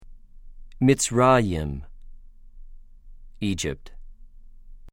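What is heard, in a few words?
"מִצְרַ֫יִם", Hebrew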